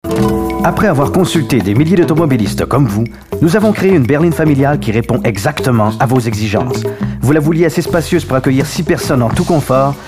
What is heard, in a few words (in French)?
Narration